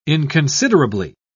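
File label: "inconsiderably", British English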